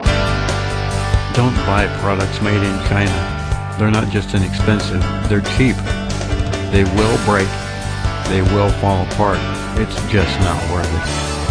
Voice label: Buy American PSA